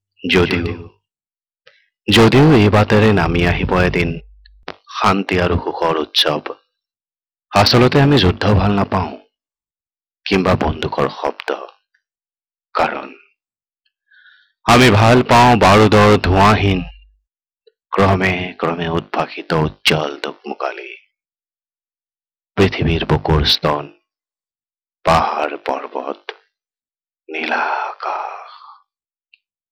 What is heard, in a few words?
poem short ASAMESE MALE